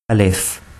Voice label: "alif", urdu